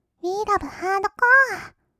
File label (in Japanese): うぃーらぶはーどこあ, kawaii voice; 音ゲー